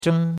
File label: "zheng1", chinois; Cours-de-Chinois